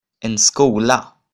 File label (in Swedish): "en skola", Swedish